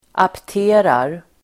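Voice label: "apterar", Swedish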